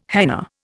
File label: "henna", Indian